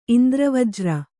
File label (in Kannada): "ಇಂದ್ರವಜ್ರ", kannada